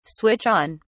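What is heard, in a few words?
"switch on", British English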